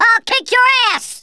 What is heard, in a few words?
you'll regret that, Drill Sergeant; Worms Armageddon